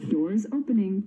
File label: american voice doors opening; kone